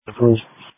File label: Sheriff Dispatch; sdr